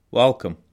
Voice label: welcome scots